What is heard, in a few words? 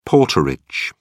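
"porterage", British English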